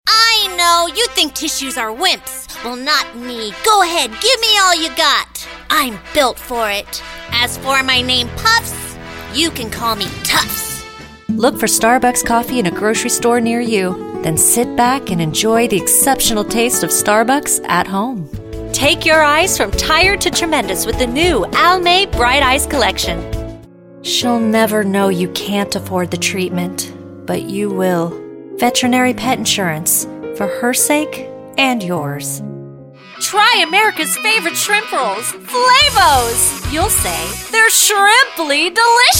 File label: commercial vo